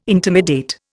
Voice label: "intimidate", Indian